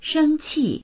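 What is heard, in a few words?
"sheng1qi4", Chinese; shēngqì; 生气; 怒る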